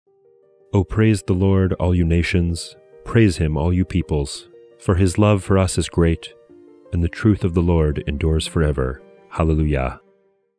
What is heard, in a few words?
poetry and prayer psalm english